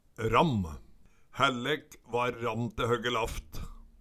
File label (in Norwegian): ramm, Numedalsmål; Hællek va ramm te høgge laft